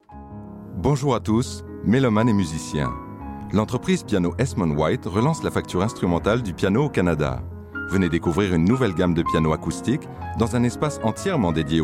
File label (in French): demo publicité radio